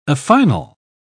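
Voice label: "affinal", English, uk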